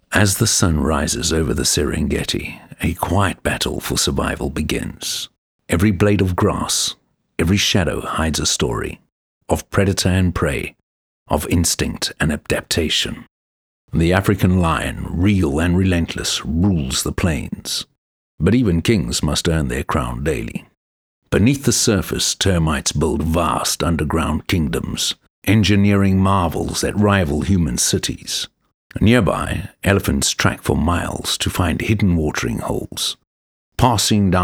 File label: Nature Documentary